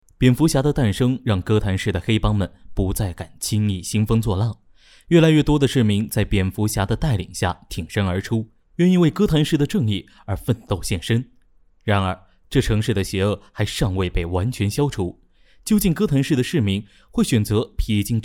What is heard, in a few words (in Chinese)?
电影解说样音